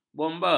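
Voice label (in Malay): "bomba", Malay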